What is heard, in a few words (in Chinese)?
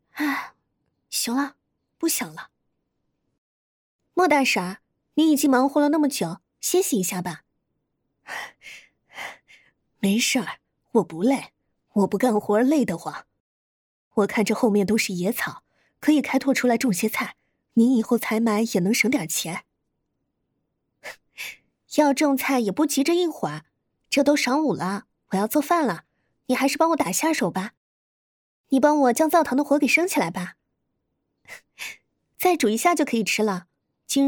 青年中年对话感